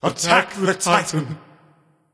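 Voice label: vox attack male; smite; agni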